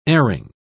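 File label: "erring", English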